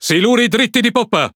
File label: It Speech Alarms Torpedo Back; World Of Warships